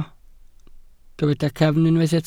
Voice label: "cefn", Welsh